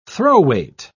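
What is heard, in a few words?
"throw-weight", British English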